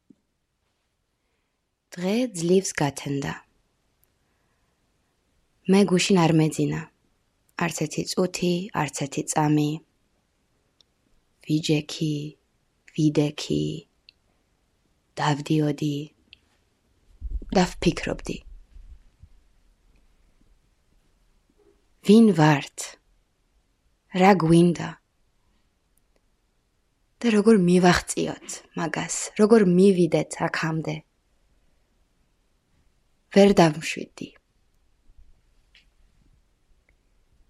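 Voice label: Georgian, General; GoLocalise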